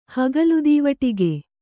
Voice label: "ಹಗಲುದೀವಟಿಗೆ", Kannada